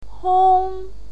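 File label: "hong", Mandarin Chinese pinyin, first tone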